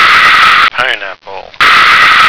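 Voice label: "pineapple", American English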